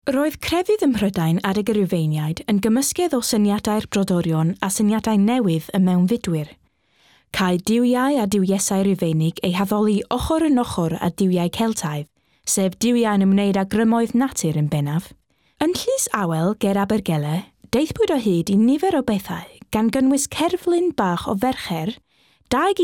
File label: welsh narration; WELSH LANGUAGE NARRATIVE